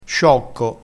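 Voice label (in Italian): "sciocco", dialettale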